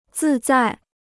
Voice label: "自在", Chinese

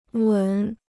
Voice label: "吻", Chinese; 吻 (wěn); kiss; to kiss

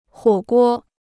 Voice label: "火锅", Chinese

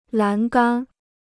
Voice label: "栏杆", Chinese

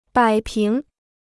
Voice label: "摆平", Chinese

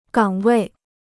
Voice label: "岗位", Chinese

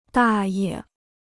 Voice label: "大爷", Chinese

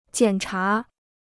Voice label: "检查", Chinese

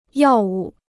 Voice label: "药物", Chinese

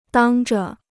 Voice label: "当着", Chinese

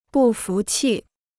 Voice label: "不服气", Chinese

